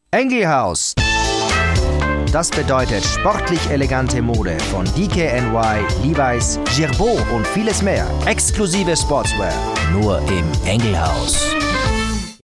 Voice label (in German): Werbung; Kitzbühel Tourismus “Winterspot”; Engelhaus